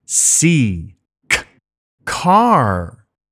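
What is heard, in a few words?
C Car, english pronunciation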